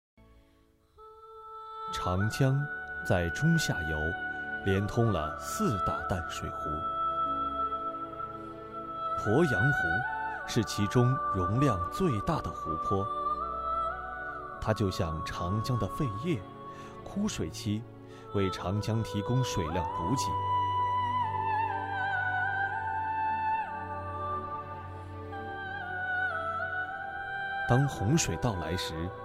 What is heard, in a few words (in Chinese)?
纪录片样音; 航拍中国